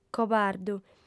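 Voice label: "cobardu", female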